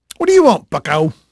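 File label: English male, voice; Pirates Of The Caribbean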